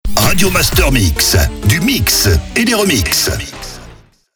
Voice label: Sweeper Radio Master MIX - SPEED; Habillage